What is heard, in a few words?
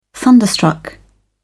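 "thunderstruck", British English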